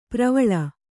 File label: "ಪರಿವೇಲ", Kannada